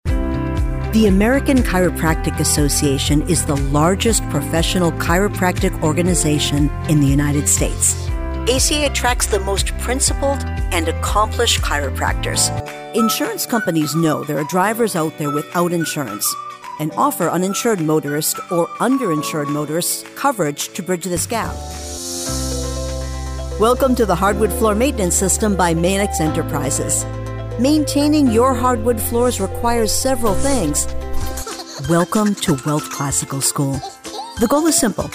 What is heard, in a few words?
Narration